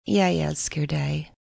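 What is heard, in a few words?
I Love You Danish F, female, Danish; Endearment, foreign; Talking Sound Effects; Sound Effects:Voice; VoiceBox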